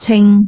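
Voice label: "cing6", Cantonese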